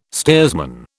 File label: "steersman", British